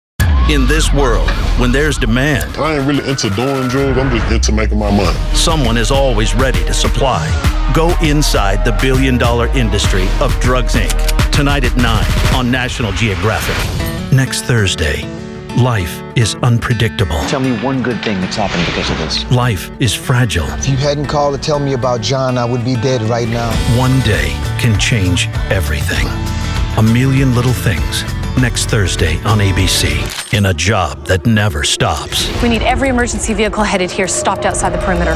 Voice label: Promo